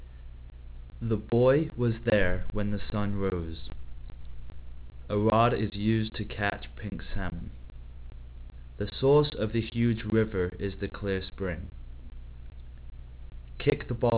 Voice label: male